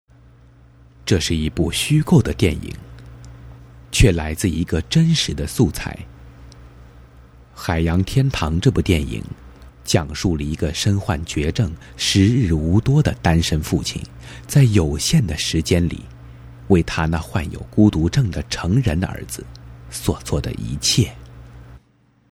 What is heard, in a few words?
boy, Chinese; qiannizou